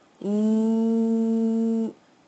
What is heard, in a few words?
wooo sound